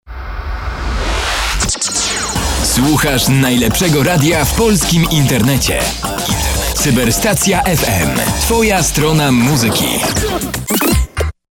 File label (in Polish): jingiel najlepsze radio